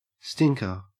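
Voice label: "stinker", Australian English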